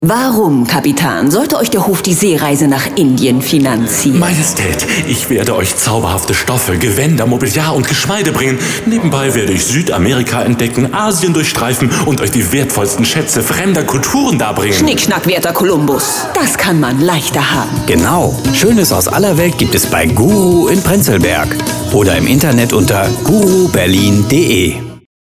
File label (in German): Handel Radiowerbung